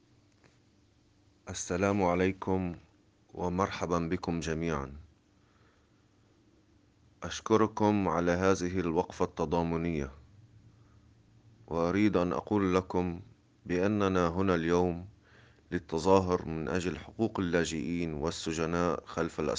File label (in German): arabisch